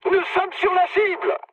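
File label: near waypoint, mood high, French; War Thunder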